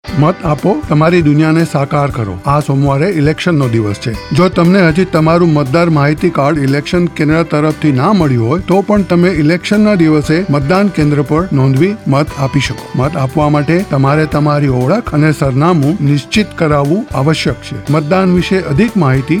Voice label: election gujarati, radio ad